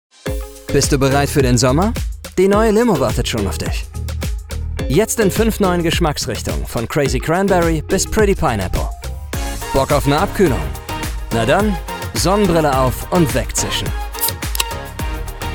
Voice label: locker unaufgeregt

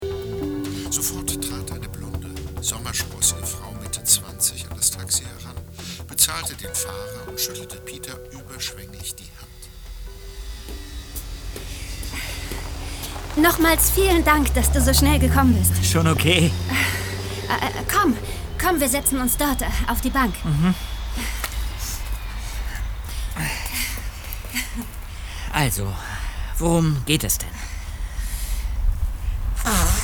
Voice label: Hoerspiel, Audio Drama (Hörspiel), German; Die ??? Drehbuch der Täuschung

